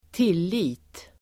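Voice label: "tillit", Swedish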